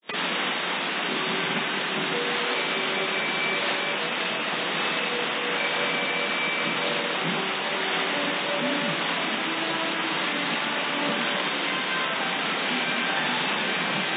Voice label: 9.415MHz Vietnamese; Beijing